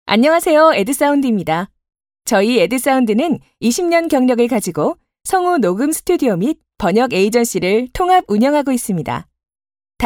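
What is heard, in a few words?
Korean female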